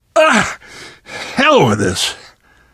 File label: going to die light, left4dead2 survivor voice namvet; source engine